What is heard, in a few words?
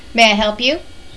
FEMALE; PEOPLE